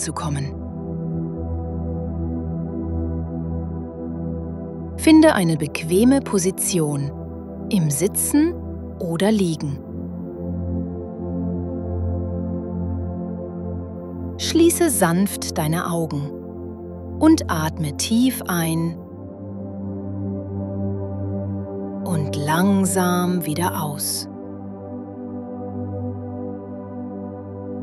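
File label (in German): geführte meditation selbstliebe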